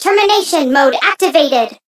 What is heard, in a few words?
Voice chant